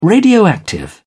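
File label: "radioactive", British English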